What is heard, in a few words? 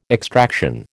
"extraction", American